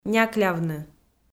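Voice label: "няклявны", Komi, female; kpv audio dict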